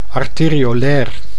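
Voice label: "arteriolair", Dutch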